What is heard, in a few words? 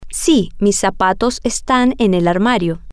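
Spanish, female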